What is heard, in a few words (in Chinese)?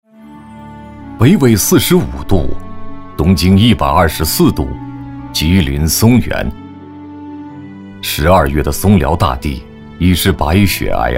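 男 专题（深情 大气）; 中铁十局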